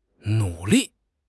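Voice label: "nu3 li4", Chinese; HSK3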